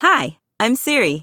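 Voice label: US English; iOS Sounds; simone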